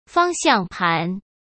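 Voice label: "方向盘", Mandarin Chinese; hsk; chinese-flashcards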